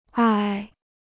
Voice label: "唉", Chinese

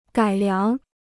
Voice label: "改良", Chinese

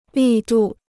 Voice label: "力度", Chinese; 力度 (lì dù); strength; vigor

